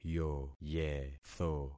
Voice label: "yoyetho", concat syllables; Affixing experiment